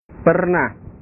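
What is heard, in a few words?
"pernah", Indonesian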